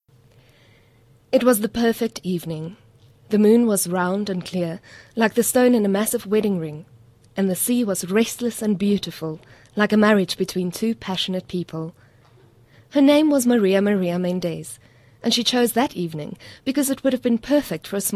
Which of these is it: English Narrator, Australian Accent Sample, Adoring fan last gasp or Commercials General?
English Narrator